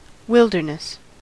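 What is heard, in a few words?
"wilderness", American English; pronunciations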